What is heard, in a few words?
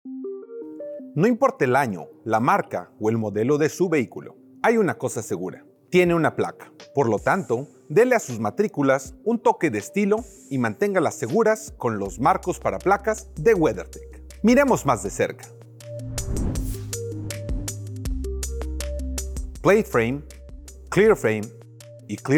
Spanish; Clear Cover Clear Plate Plate frame